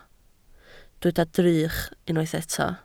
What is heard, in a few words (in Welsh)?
"drych", Welsh